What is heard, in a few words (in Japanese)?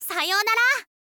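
"sayounara", girl, Japanese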